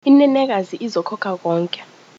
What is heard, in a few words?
pay zulu